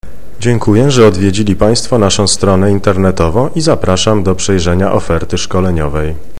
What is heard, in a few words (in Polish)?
"Zapraszam", voice